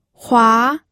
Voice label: "hua2", pinyin audio